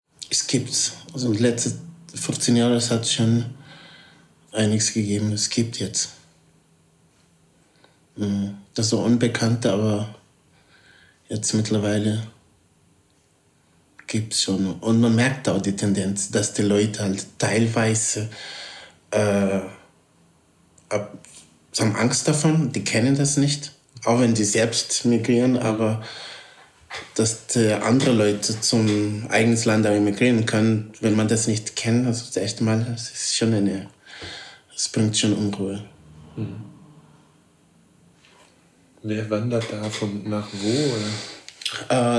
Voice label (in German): portugiesisch mosambik